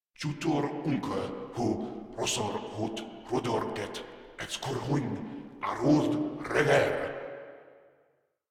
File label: Patrol Grineer Male, Barks, Battle